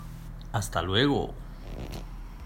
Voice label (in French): espagnol au revoir